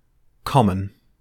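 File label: "common", British English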